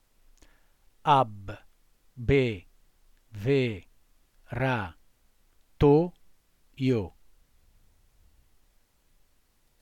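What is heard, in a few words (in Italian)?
"abbeveratoio" Italian word, voce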